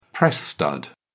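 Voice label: "press stud", British English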